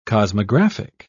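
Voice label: "cosmographic", British English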